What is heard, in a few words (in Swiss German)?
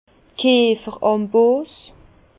"kieferambos", Bas Rhin; enclume de tonnelier; vin